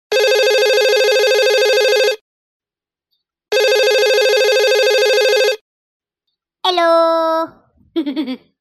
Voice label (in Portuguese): alo minions